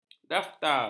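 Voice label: "daftar", Malay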